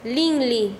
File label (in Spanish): "oido", Quechua Chanka